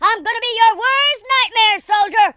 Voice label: go away, Drill Sergeant, speech

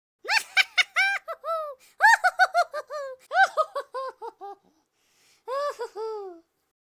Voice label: happy

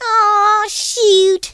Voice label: "traitor", Cowgirl; speech

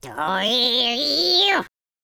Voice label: angry, Welsh; speech

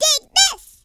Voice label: "fire", speech

